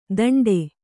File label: "ದಂಡೆ", Kannada